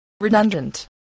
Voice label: "redundant", spelling voice

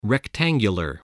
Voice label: "rectangular", spelling voice

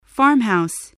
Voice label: "farmhouse", voice

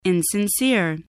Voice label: "insincere", voice spelling

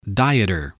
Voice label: "dieter", english spelling

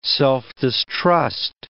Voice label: "self-distrust", English

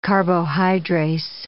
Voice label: "carbohydrase", English

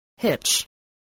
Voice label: "hitch", English, spelling

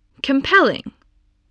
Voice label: "compelling", english

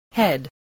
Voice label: "head", English, spelling

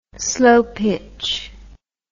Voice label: "slo pitch", words

